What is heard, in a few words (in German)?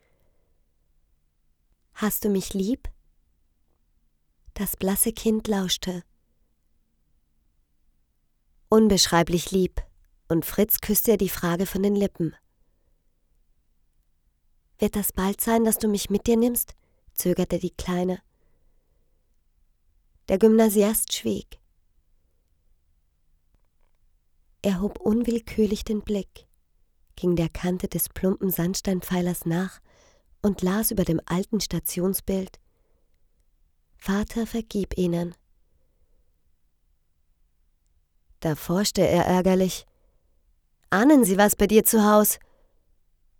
Hoerbuch; Rilke